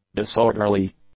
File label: "disorderly", English; adjectives